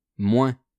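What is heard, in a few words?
"moins", French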